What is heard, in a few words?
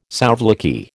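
"souvlaki", American English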